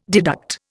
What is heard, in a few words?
"deduct", Indian